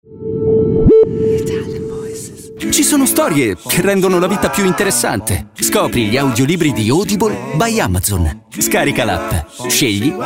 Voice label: ITALIAN VOICES Friendly Fresh, male voices

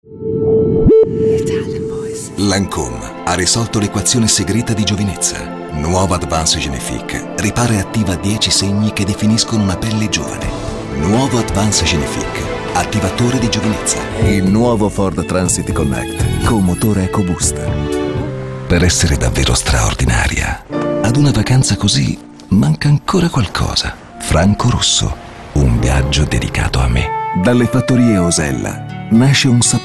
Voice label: ITALIAN VOICES Smooth Warm, male voices

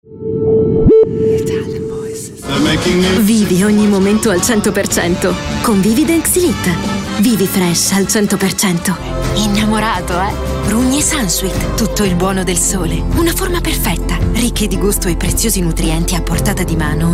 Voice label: ITALIAN VOICES Recent Commercials, female voices

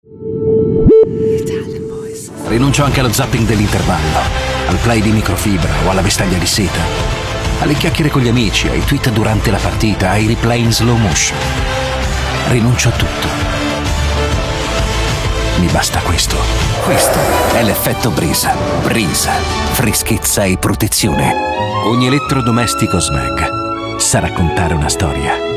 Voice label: ITALIAN VOICES Recent Commercials, male voices

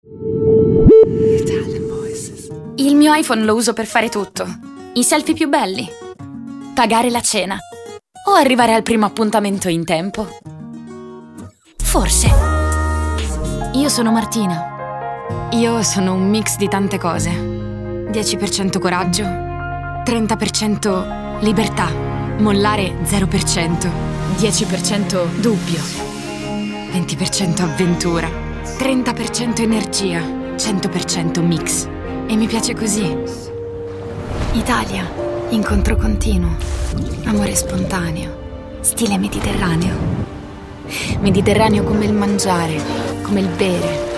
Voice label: ITALIAN VOICES Intimate Intense, female voices

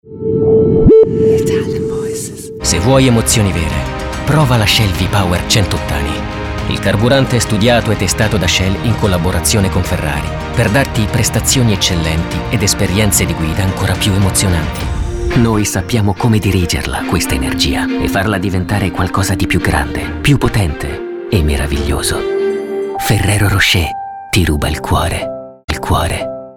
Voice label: ITALIAN VOICES Smooth Commercial, male voices

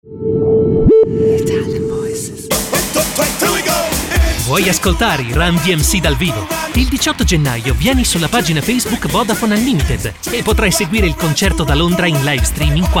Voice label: ITALIAN VOICES Strong Distinctive, male voices

